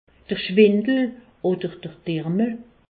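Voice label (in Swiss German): "schwindel", Alsatian; medecine; vertiges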